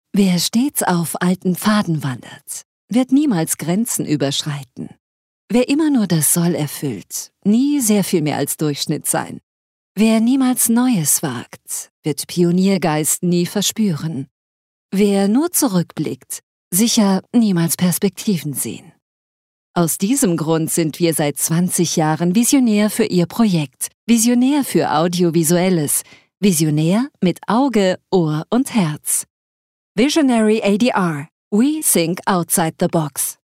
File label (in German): Freundlich; Visionary; ADR